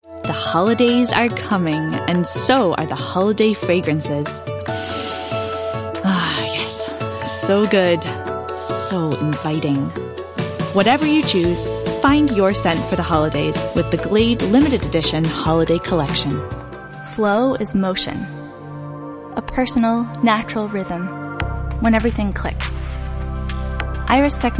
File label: commercial